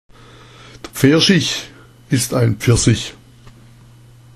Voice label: "pferschich", schwaebisch; Ein Pferschich ist ein Pfirsich

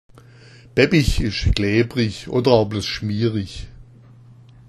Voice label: baebbich, schwaebisch; bäbbich ist klebrig, oder auch nur schmierig

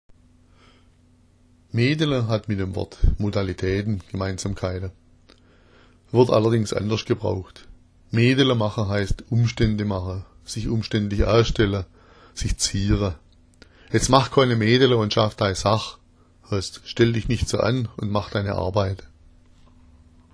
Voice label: meedela, schwaebisch

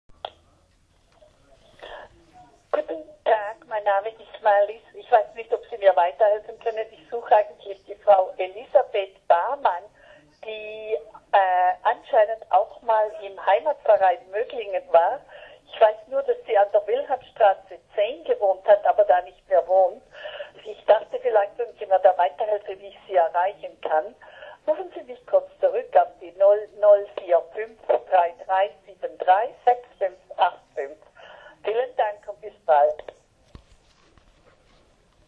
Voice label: bappadeckel, schwaebisch; Ein flaches Stück Karton / Pappe ist ein Babbadeckel.

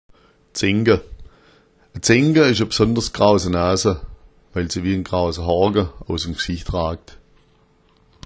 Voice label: zenka, schwaebisch

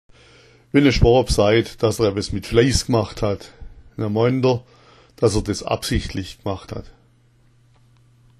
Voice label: fleiss, schwaebisch

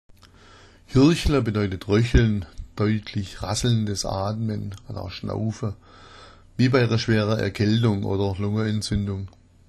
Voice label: hirchla, schwaebisch

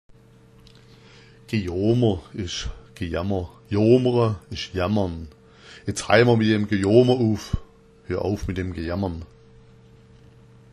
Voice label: gejoomer, schwaebisch

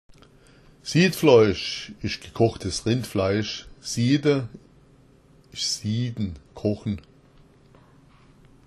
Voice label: "siadfloisch", schwaebisch; Siadfloisch ist gekochtes Rindfleisch, siada ist sieden, kochen

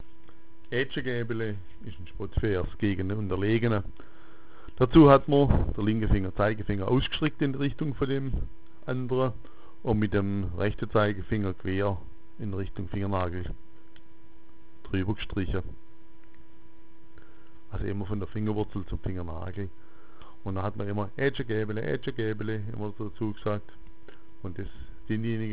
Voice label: "aetsche", schwaebisch

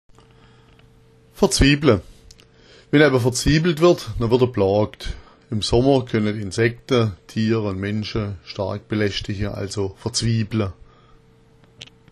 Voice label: verzwiebla, schwaebisch

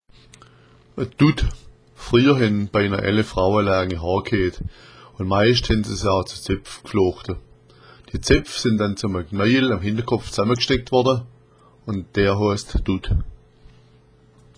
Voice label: dutt, schwaebisch

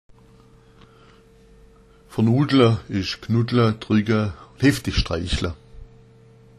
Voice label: "vernudla", schwaebisch

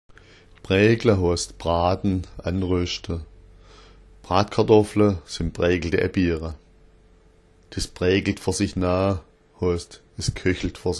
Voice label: "praegla", schwaebisch

